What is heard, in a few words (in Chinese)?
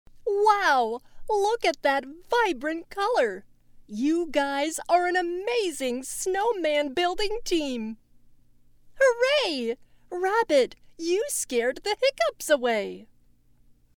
外籍英女 情感丰富 儿童绘本